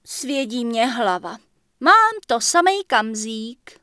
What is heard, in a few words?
Night Elf Female Heal Me; wowpreklad; czwow; NightElfVocalFemale